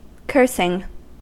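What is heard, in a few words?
"cursing", US English; pronunciations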